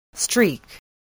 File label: "streak", spelling